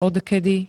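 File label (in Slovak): "odkedy", Slovak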